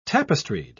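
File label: "tapestried", British English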